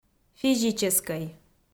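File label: "физическӧй", Komi, female; kpv audio dict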